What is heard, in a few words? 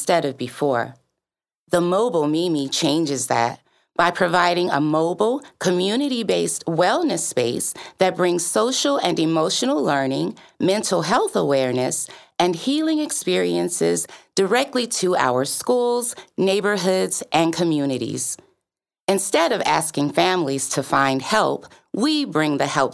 Voice Over; message from the mobile mimi